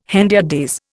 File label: "hendiadys", Indian